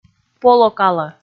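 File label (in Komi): "полокалӧ", Komi, female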